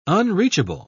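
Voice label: "unreachable", British English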